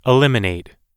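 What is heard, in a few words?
"eliminate", English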